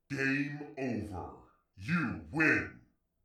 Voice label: game over you win, announcer; instagib; blocks and bullets

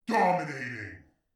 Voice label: "dominating", announcer; instagib; blocks and bullets

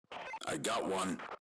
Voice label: enemy down, radio

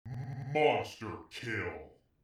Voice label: "monster kill", announcer; instagib; blocks and bullets

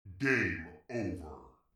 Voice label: game over, announcer; instagib; blocks and bullets

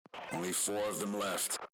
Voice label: enemy, radio

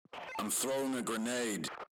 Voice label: grenade, radio

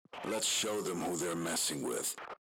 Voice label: roundstart, radio

